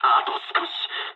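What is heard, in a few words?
near waypoint mood med, speech japanese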